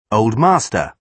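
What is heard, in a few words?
"old master", British English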